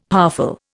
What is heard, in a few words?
"powerful", Indian; Dictionary